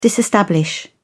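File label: "disestablish", British English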